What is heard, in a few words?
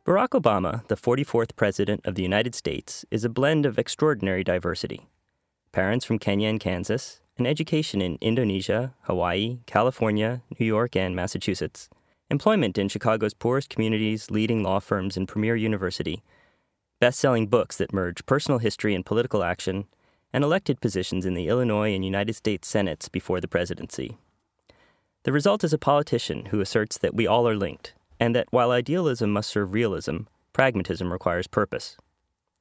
Audiobook; Barack Obama for Beginers